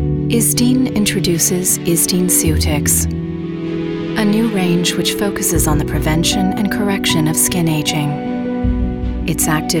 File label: female american english beauty comercial; ideasonora